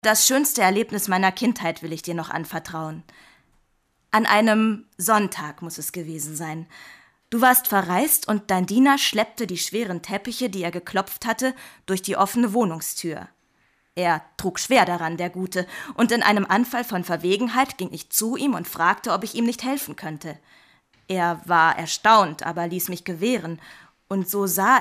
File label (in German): Hoerbuch; LOFT VOICES